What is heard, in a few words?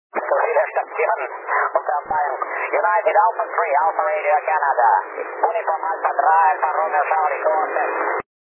deutsch